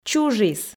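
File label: "чужис", Komi, female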